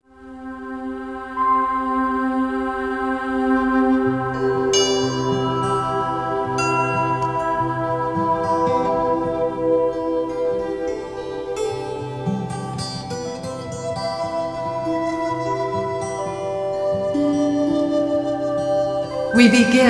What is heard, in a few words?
Refuge Bodhicitta Meditation